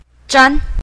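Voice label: "zhan", Mandarin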